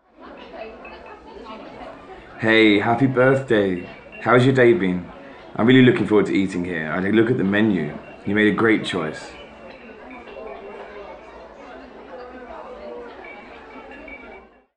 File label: dinner english with